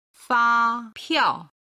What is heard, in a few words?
"fapiao", Chinese